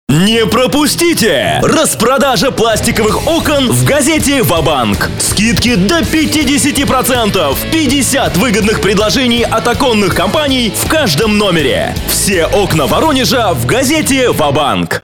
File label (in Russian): Gazeta informatsionnyiy muzhskoy